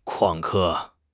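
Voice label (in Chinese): "旷课", Chinese; HSK6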